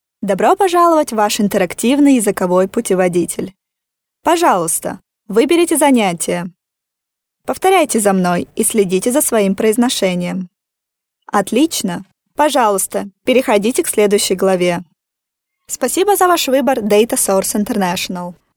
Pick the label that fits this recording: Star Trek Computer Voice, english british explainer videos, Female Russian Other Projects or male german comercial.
Female Russian Other Projects